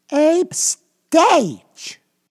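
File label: "stage", English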